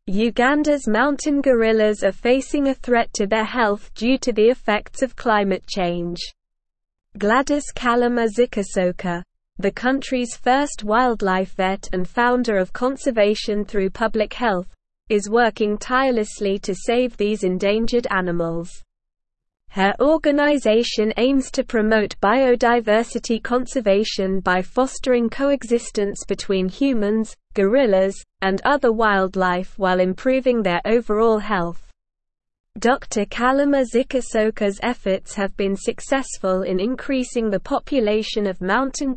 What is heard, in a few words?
English Advanced SLOW Reading; Ugandas Wildlife Vet Saves Mountain Gorillas from Climate Cha; English Newsroom